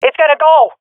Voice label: bomb pre explosion, swat fem; vo; agents; Source Model Packs; Counter Strike Model Pack; CS2